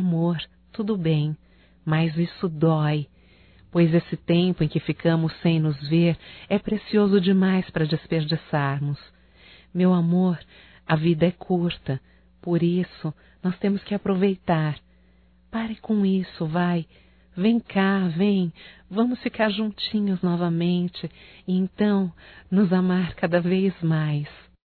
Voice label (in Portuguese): DEIXE DE LADO AS DIFERENÇAS(F), Românticas fem